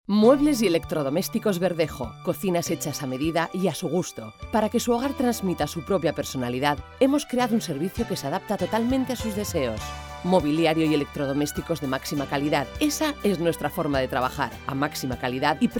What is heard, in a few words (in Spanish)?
locutora